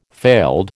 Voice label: "failed", American